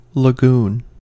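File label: "lagoon", American English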